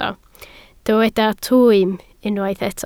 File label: "twym", Welsh